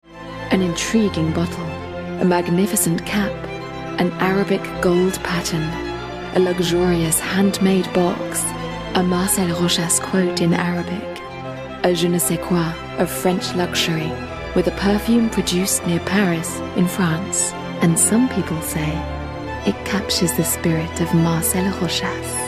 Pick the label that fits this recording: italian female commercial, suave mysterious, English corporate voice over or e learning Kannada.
suave mysterious